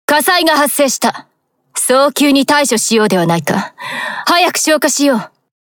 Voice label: Speech Alarms Fire Alarm; Littorio; wows